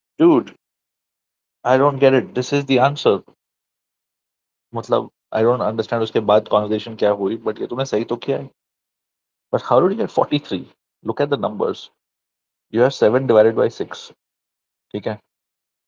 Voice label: voice message; DMFC(methanol burning)